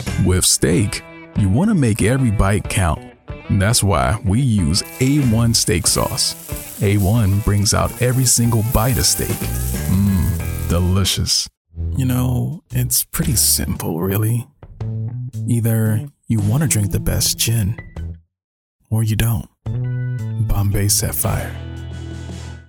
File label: English voice over warm calm image advertisement